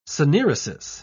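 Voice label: "syneresis", British English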